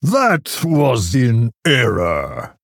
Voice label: Male Orc Oops